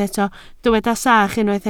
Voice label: "sach", Welsh

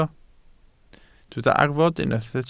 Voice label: "arfod", Welsh

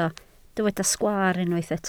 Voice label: "sgwaar", Welsh

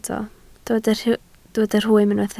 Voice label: "rhwym", Welsh

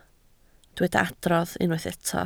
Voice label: "adrodd", Welsh

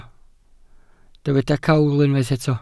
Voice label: "cawl", Welsh

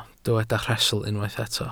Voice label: "rhestl", Welsh

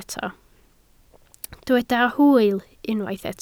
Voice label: "hwyl", Welsh

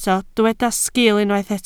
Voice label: "sgil", Welsh

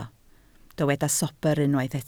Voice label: "sobr", Welsh

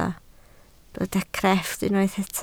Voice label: "crefft", Welsh

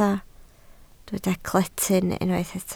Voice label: "cetyn", Welsh

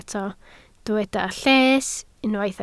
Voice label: "lles", Welsh

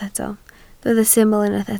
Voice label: "syml", Welsh

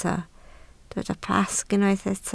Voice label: "Pasg", Welsh

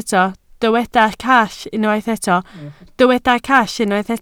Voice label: "call", Welsh

